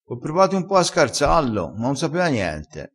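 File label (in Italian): "scarza", dialettale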